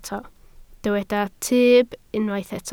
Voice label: "tyb", Welsh